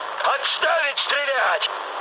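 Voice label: player friendly fire, mood med, Russian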